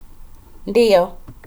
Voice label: Swahili yes ndiyo